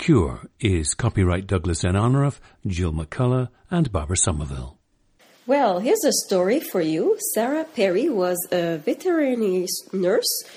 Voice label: quebec